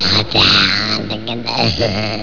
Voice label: gibberish